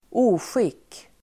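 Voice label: "oskick", Swedish; Uttal: [²'o:sjik:]; oskick substantiv, bad habit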